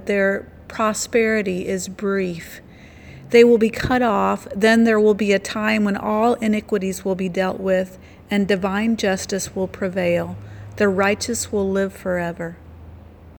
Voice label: Childrens Bible Verse